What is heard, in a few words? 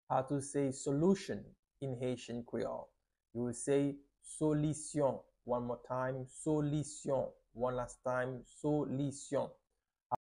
"Solisyon" Haitian Creole pronunciation by a native Haitian Creole teacher; How to say Solution in Haitian Creole